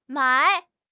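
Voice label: "mai3", Chinese; HSK1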